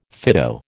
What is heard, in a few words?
"Fido", American